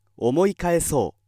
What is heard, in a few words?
male